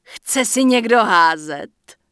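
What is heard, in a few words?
Human Female Official NPC Farewell, Czech; wowpreklad; czwow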